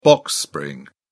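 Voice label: "box spring", English, British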